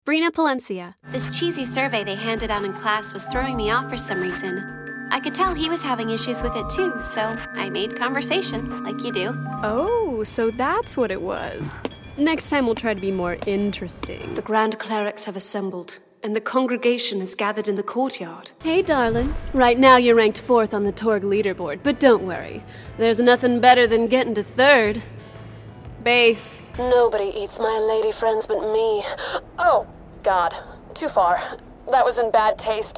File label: animation